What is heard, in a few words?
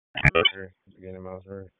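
Raleigh Police Southeast District Dispatch, p25; wake p25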